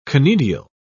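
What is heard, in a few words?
"conidial", British English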